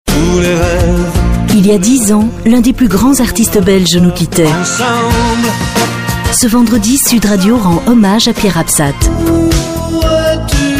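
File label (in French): Belgian French, female, commercial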